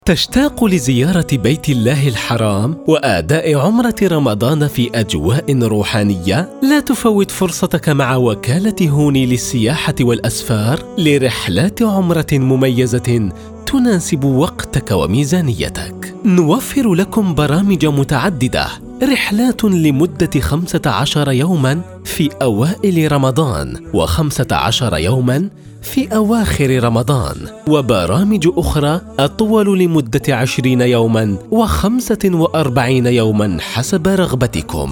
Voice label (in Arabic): تسجيل صوتي, voiceover ads